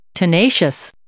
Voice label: "tenacious", English

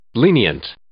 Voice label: "lenient", English

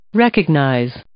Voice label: "recognize" verb; English

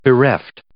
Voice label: "bereft", English

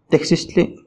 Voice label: testículos tejkistli testicles, Náhuatl

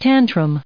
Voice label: "tantrum", English

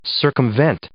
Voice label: "circumvent", English

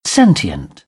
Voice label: "sentient", British; AmericanBritish

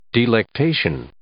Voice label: "delectation", English

